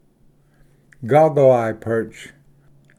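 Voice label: "goggle eye perch", English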